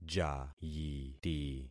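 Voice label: "jayiti", concatenated syllables; Affixing experiment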